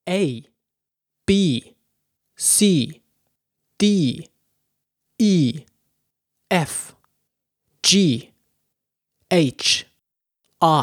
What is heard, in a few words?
Alphabet Letters Abc Male